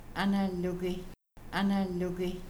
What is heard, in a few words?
Move over, Kaska; Morning